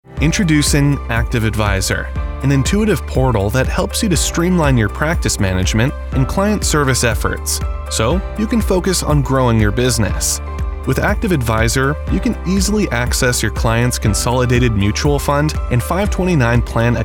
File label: Real Estate Software Explainer